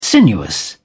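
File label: "sinuous", British English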